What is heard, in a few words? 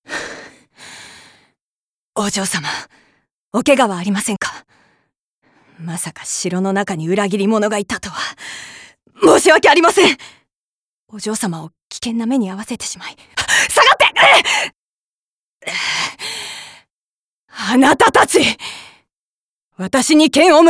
voicesample Women